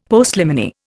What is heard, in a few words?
"Postliminy", Indian; Dictionary